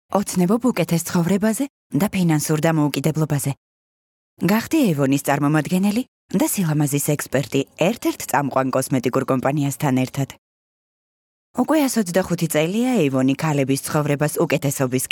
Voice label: Georgian